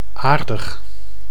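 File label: "aardig", Dutch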